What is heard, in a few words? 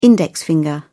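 "index finger", British English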